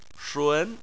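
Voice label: "shun", Chinese, second tone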